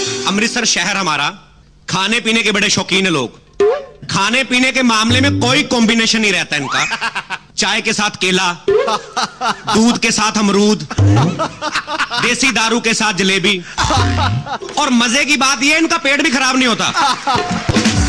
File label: Punjabi Funny